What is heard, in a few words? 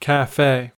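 "cafe", US English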